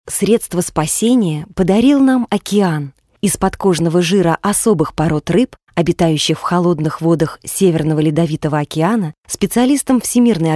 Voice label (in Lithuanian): (MOT) Rusiškas balsas; rusu; moteris